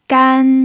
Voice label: "gan1", Mandarin pinyin